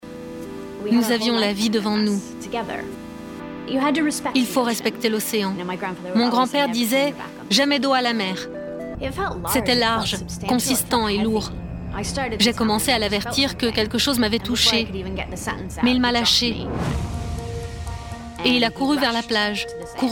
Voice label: french documentaries

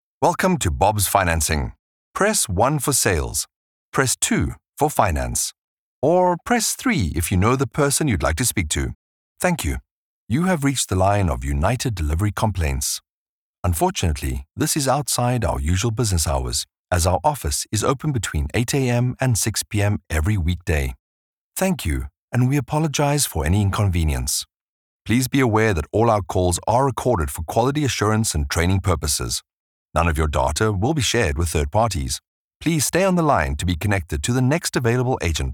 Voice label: english south african ivr

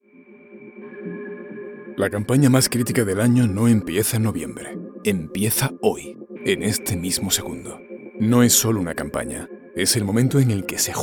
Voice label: spanish commercial; Communicative And Warm Voice; Voice-Over

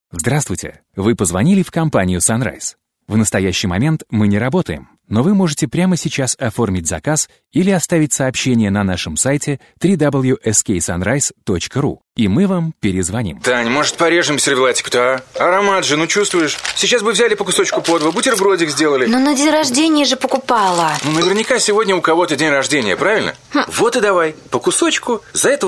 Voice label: russian narration

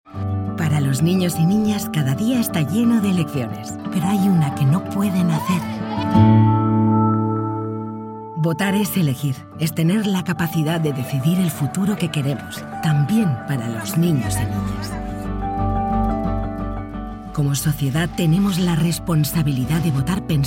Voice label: spanish commercial

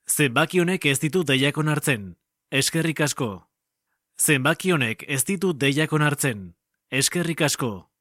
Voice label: Basque IVR

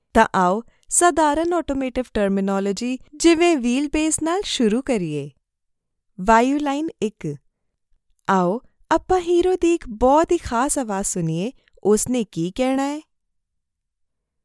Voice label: punjabi e-learning

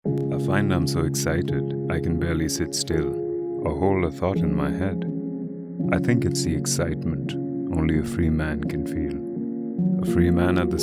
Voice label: english indian commercial

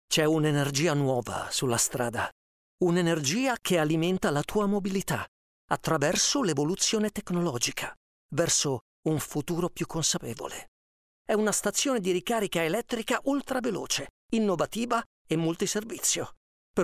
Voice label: italian movie trailers

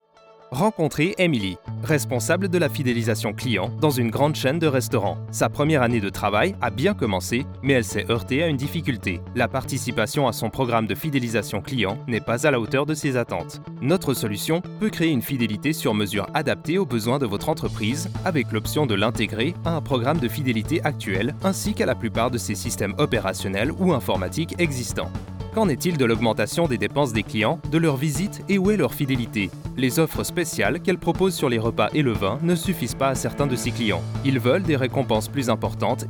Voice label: french corporate

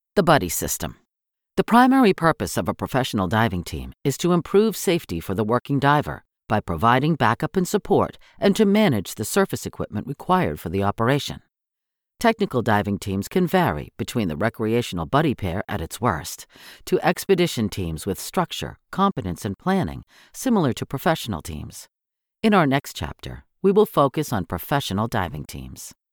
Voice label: english american training e learning

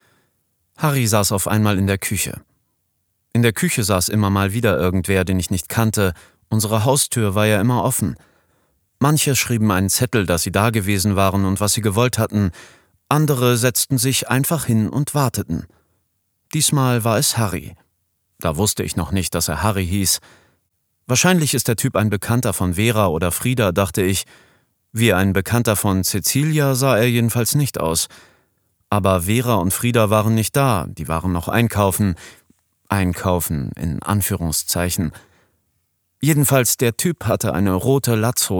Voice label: german narration

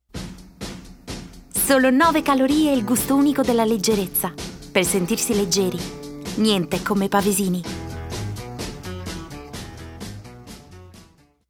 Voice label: italian commercial

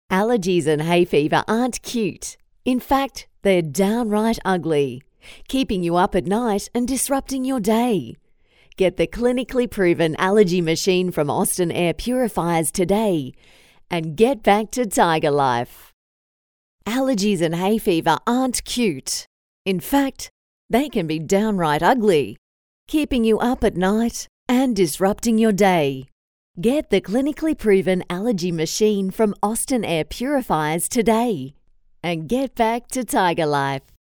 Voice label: english australian audio guides

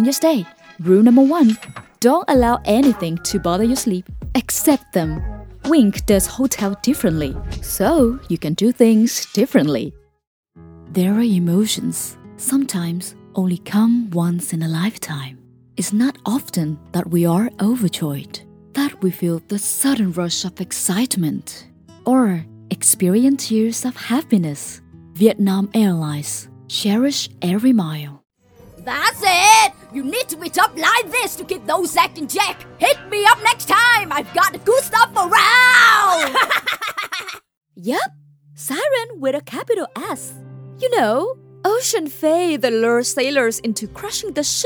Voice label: english commercial, vietnamese voice actors

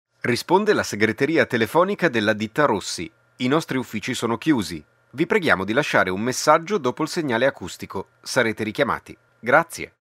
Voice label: Italian IVR

